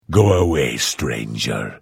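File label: Hello, Vo; Morrowind GOTY GOG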